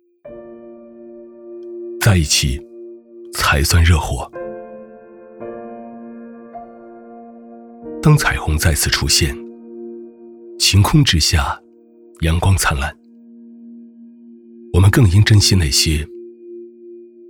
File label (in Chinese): 男 走心; 湖与城